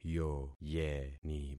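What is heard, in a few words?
"yoyeni", concatenated syllables; Affixing experiment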